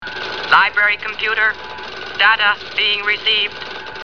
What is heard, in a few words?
data received, computer, voice